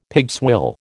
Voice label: "pigswill", American